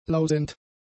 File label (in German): "lausend", German; adjectives